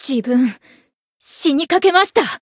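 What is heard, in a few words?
win barely, Japanese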